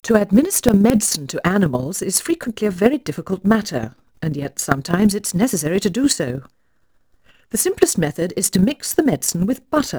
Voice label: Female speech English Mono output noised, 3bit